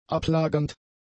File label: "ablagernd", German; adjectives